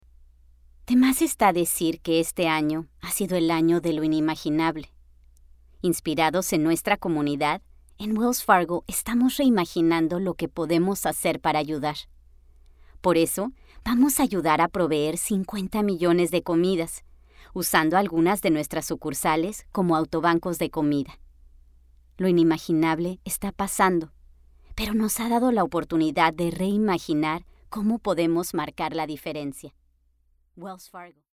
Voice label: female latam mexican comercial